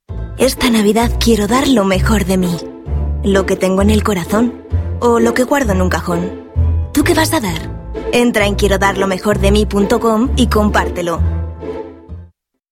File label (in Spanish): Publicidad Navidad